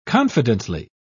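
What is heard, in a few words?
"confidently", British English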